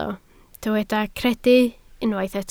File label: "credu", Welsh